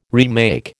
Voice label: "remake", American

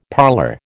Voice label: "parlour", American

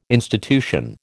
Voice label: "institution", American

